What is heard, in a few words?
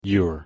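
pronunciation "youre", English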